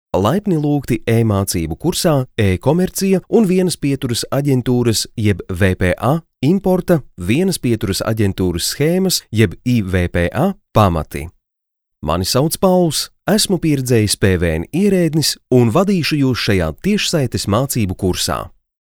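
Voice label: Latvian, male, elearning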